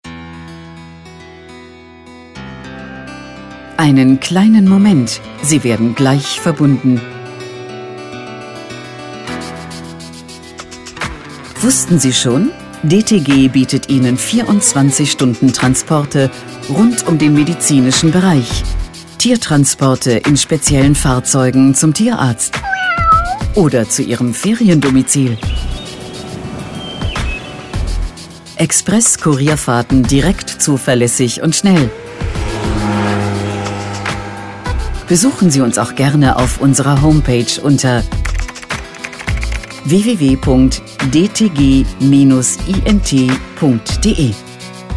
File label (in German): Kreative Warteschleife, Telefonansagen